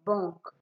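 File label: "bunk", Nigerian Pidgin, female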